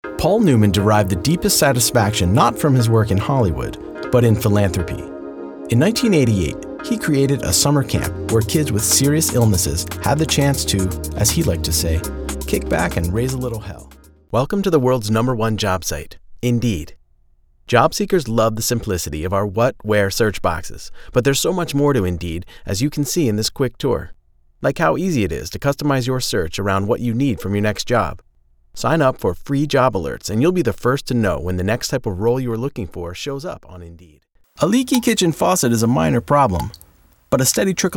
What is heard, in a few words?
men narration